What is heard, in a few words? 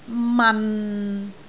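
"man6", Cantonese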